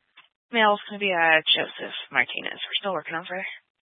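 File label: Sheriff Dispatch, Scanner audio recording archive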